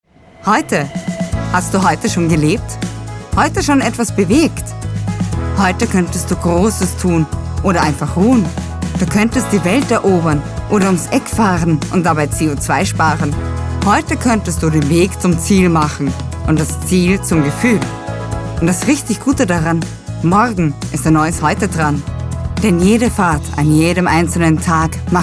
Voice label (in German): WERBUNG; OEBB